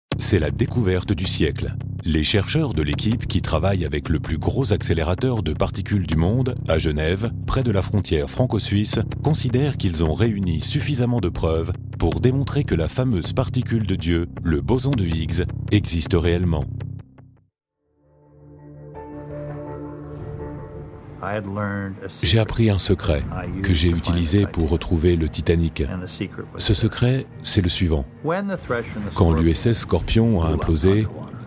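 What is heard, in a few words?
French European; Documentaries; Male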